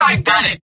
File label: scout cheers; video games; source engine; tf; mvm